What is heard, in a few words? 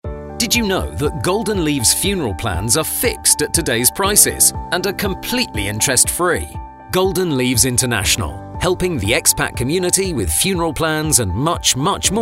MALE